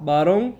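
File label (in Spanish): "baron", Cuba, Havanna; Kuba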